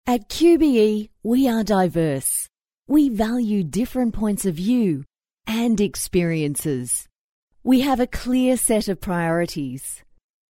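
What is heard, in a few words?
english australian corporate videos, female; english australian voice over talent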